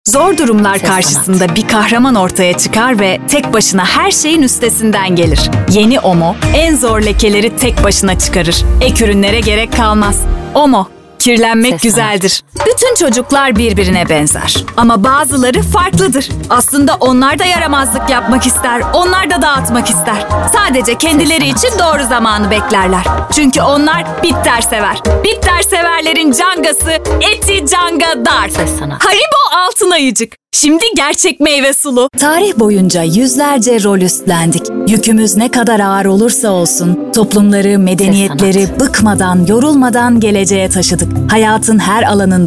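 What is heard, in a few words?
Turkish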